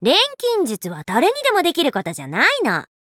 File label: alchemist touch, ja